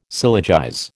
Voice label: "syllogize", American

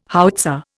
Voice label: "howitzer", Indian

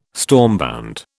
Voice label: "stormbound", British

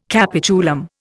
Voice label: "capitulum", Indian

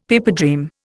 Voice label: "pipedream", Indian; Dictionary

